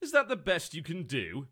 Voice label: Taunt Obnoxious; TotalBiscuit